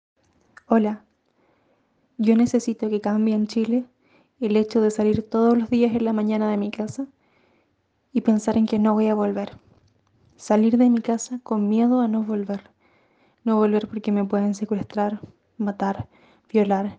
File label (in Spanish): mujer